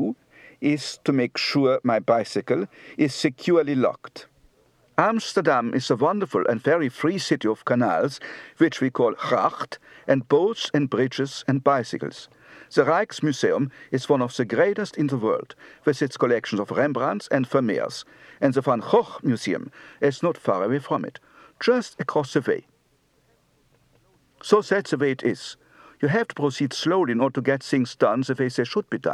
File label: Dutch, Accent